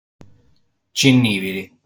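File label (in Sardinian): "Cinnìbiri", Sardinian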